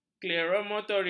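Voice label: "krematorium", Malay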